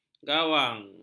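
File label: "gawang", Malay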